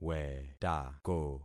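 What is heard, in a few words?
"wetako", concatenated syllables; Affixing experiment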